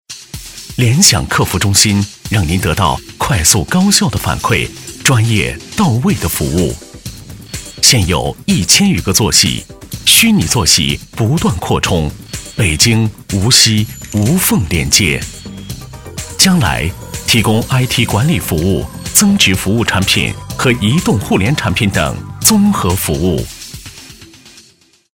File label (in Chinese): 男25, 国语男声配音; 联想服务; 央视大气专题